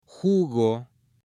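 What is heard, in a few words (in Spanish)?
"jugo" Spanish, female; ALIMENTOS; AppLenguaje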